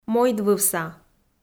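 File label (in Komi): "мойдвывса", Komi, female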